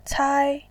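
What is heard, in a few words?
"cai", Mandarin Chinese, tone 1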